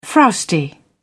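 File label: "frowsty", British English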